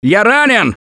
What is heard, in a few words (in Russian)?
Incapacitated Initial, left4dead2 russian, Survivor Voice; Gambler